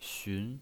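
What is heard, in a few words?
"xun", pinyin voice, second tone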